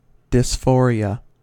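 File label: "dysphoria", US English; pronunciation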